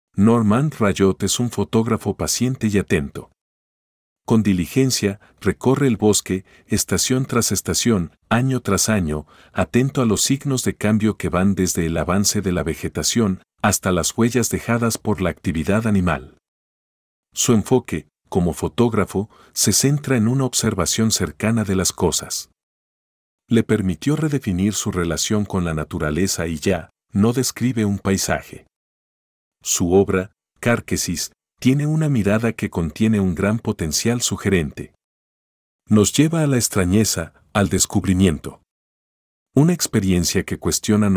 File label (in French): espagnol